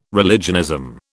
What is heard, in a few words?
"religionism", British